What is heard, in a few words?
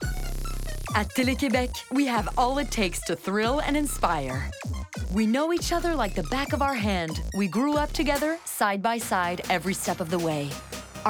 Annonceur ENG